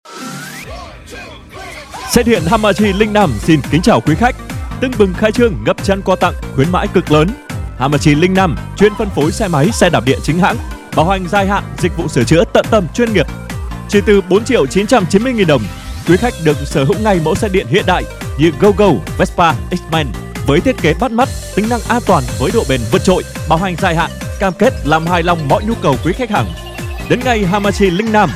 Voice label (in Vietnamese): Thu am Phat Loa, Cua hang xe dien; Hamachi Linh Nam